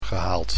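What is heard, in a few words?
"gehaald", Dutch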